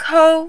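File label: "kou", Mandarin, first tone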